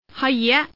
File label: woman; mahjong paohuzi; youxian